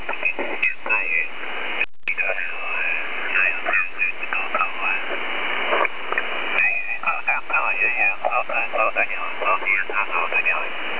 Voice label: ssb invers